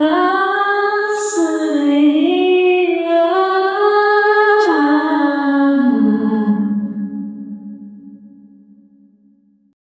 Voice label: singing female android; humanoid